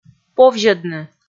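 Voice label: "повзьӧдны", Komi, female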